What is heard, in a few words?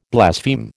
"blaspheme", American